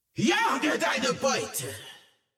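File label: German; 奥丽莎（守望先锋2）; 终极技能; 德语; Jage deine Beute!; 追捕你的猎物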